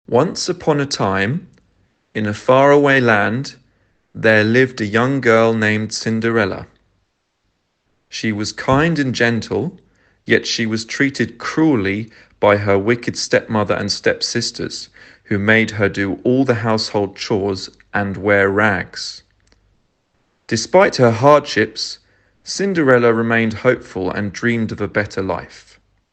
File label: British Accent